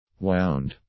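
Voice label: "wound", English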